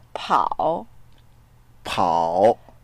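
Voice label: "pao3", Mandarin Chinese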